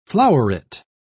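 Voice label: "floweret", British English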